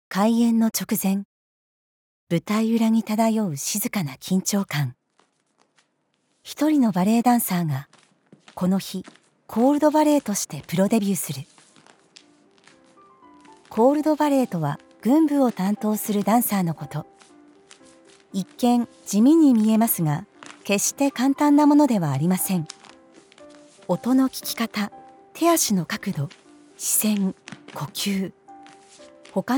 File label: japanese documentaries